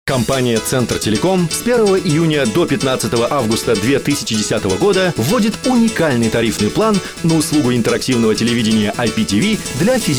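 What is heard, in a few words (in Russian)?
сложный информационный ролик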